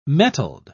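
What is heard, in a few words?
"mettled", British English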